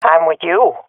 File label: following friend, swat fem; vo; Counter Strike Model Pack; CS:GO